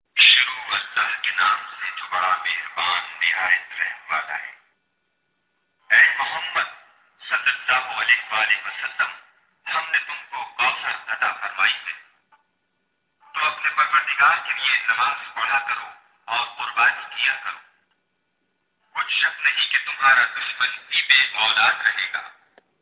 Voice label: Urdu